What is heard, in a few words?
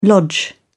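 "lodge", English, British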